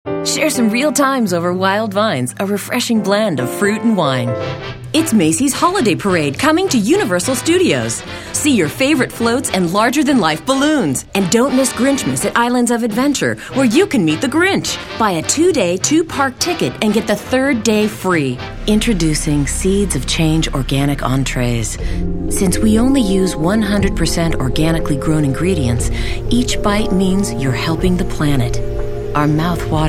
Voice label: commercial